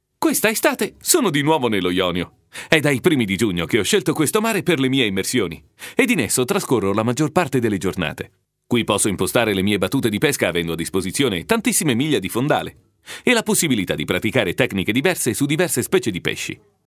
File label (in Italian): ITALIANO DINAMICA; FEMMINILE; Speaker Madrelingua